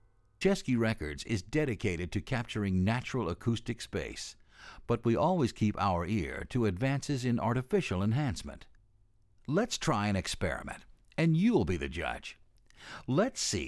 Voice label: Announcement; The Best of Chesky Classics; Jazz and Audiophile Test Disk, Vol 3